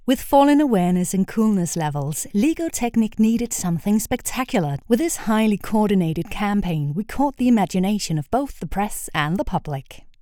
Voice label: English; LEGO Awards

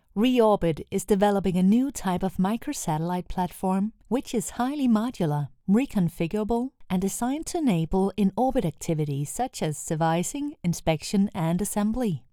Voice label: Explainer English; ReOrbit

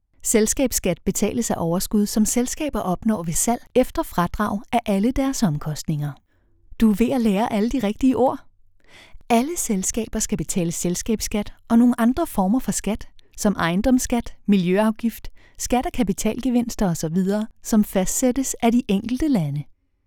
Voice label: eLearning; EU; SELSKABER

